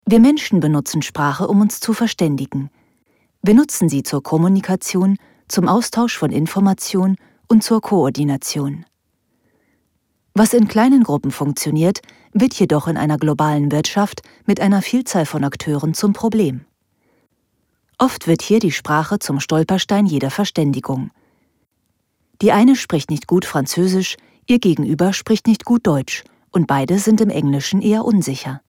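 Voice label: German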